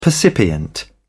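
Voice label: "percipient", British English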